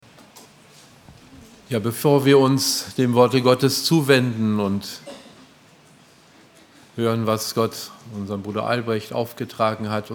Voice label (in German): Ansprache; Lagerversammlung 2016; Krankenheilung